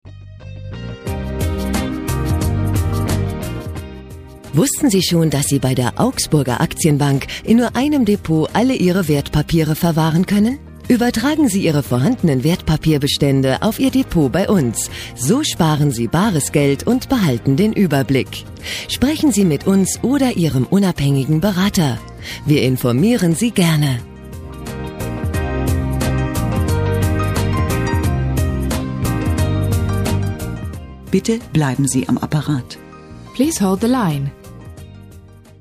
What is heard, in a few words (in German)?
Werbespot; Augsburger Aktienbank